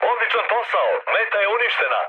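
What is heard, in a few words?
Serbian bomb success mood med, speech; War Thunder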